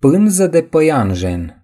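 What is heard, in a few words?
"spider web", Romanian; gcompris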